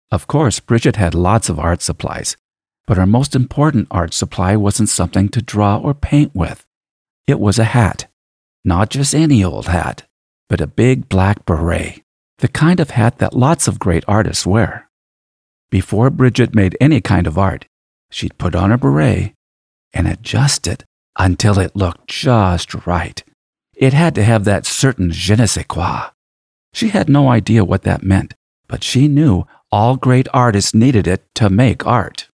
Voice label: Voice Over; Bridget and Her Hat